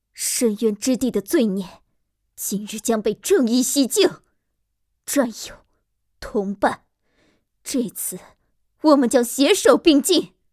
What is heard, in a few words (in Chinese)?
御姐 霸气